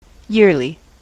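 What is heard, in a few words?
"yearly", US English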